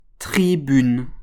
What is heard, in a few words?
"tribune", French